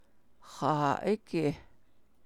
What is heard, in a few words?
navajo questions; where at specifically